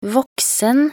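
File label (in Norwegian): "voksen", Norwegian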